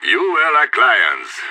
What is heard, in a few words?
radio bot end clean, player vo; idf